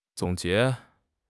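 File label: "zong3 jie2", Mandarin Chinese; HSK4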